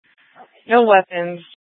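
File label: Police Department North Dispatch, scanner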